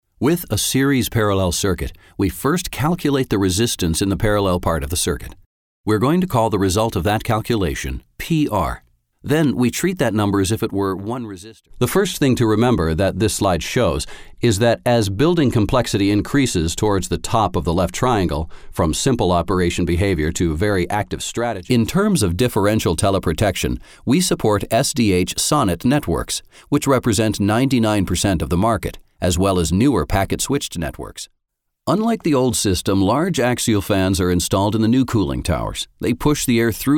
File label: eLearning Manufacturing